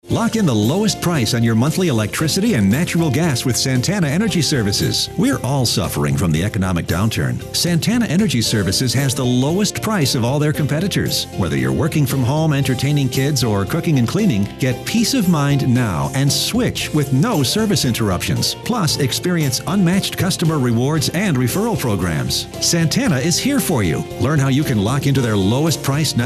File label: Male